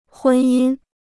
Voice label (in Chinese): "婚姻", Chinese